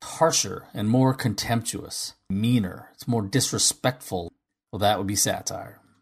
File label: harsh mean disrespectful, Voice drops